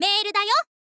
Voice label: "mail dayo", girl; voice